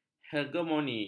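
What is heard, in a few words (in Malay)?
"hegemoni", Malay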